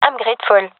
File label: thankful, gendarmerie fem epic; vo; agents